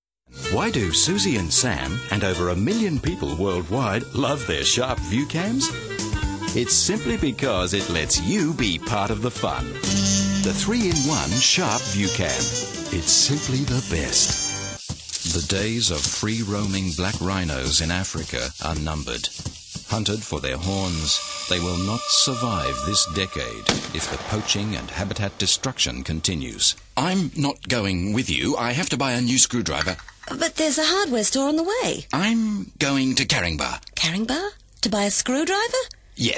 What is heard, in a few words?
Radio TV